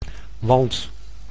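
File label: "want", Dutch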